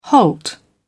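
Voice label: "halt", British English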